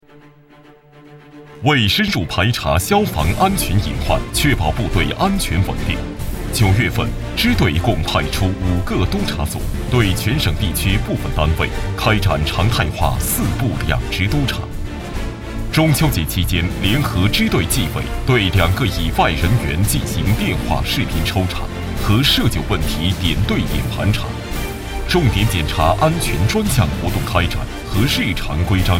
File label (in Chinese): 男,政府消防宣传